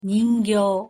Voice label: "にんぎょう", ja

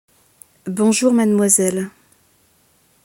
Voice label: "bonjour mademoiselle", fr

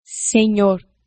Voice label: "senhor" pt

